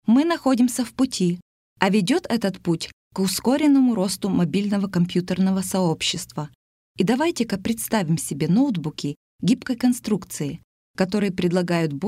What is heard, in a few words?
Russian